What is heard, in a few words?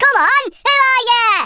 "comeonthen", Brummie; Worms Armageddon